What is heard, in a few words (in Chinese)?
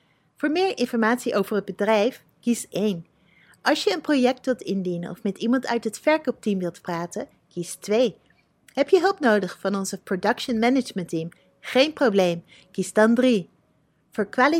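荷兰语女_外语_小语种_样音_年轻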